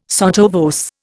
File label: "sotto voce", Indian